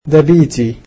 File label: this is my house, egyptian